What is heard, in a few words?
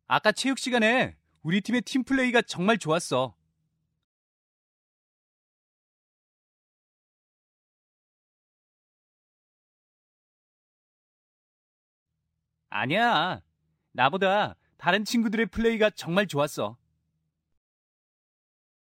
park english